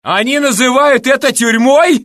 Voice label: Russian; mohaa; dialogue